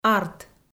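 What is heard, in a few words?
"арт", Komi, female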